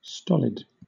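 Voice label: "stolid", British English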